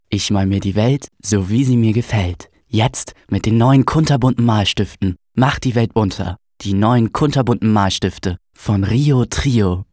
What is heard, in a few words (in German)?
jungen